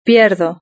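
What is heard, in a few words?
"pierdo" Spanish; verb-conjugator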